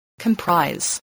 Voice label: "comprise", english